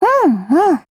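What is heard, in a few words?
AGREE, Japanese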